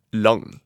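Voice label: "lang", Norwegian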